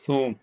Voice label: short voyels arabic alphabet